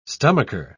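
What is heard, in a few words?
"stomacher", English, British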